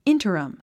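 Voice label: "interim", English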